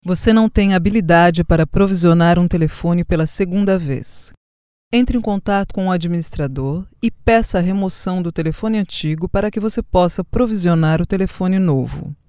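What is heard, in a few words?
Portuguese Brazilian; קריינות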